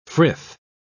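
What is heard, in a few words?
"frith", British English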